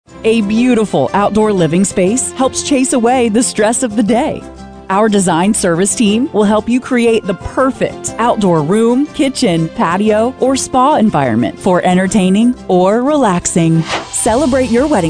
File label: female moh